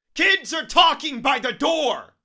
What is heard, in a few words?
angry